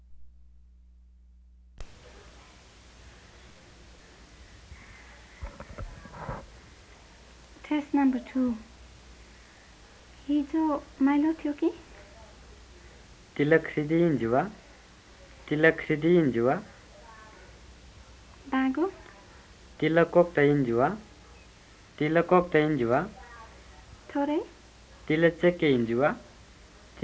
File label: Western Tamang